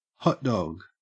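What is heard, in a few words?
"hotdog", Australian English; pronunciations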